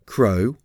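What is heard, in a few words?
"crow", English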